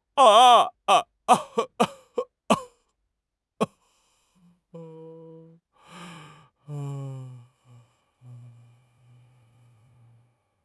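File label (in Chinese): "天生", Chinese; HSK6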